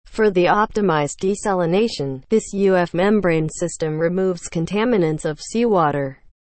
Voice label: english, seawater desalination; watercompany